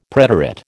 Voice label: "preterite", American